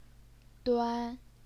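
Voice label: "duan", first tone, Chinese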